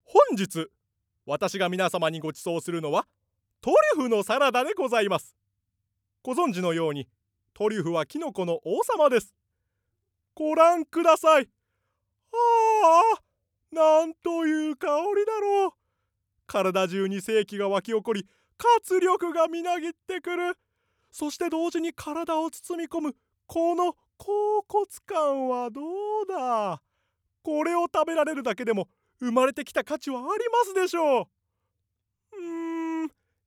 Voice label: male voice